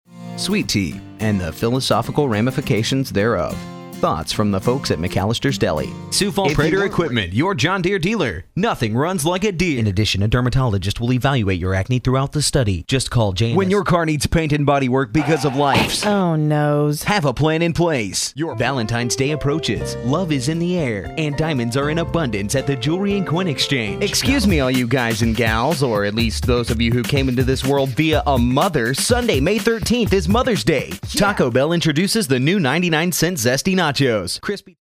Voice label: straight reads, broadcast